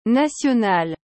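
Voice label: "nationale", French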